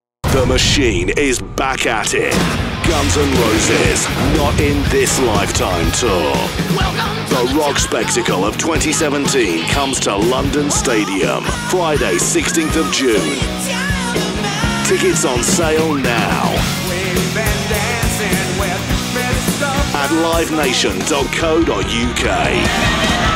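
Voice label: English UK, Werbung, Aufgeregt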